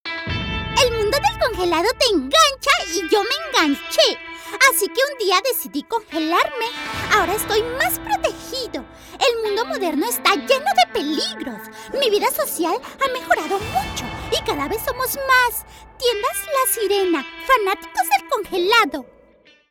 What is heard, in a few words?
CARTOON